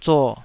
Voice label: "zuo3", taiwanese